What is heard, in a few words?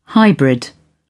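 "hybrid", British English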